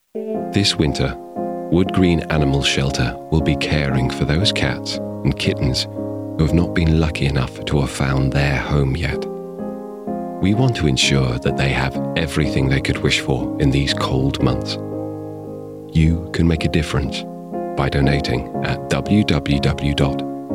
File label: British; Voiceover Demos